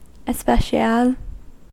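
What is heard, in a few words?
"especial", US English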